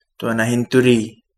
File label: younger sister, Kapingamarangi